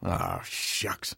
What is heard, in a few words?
negative vocalization; Tf2; engineer